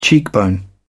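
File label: "cheekbone", British English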